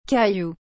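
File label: "caillou", French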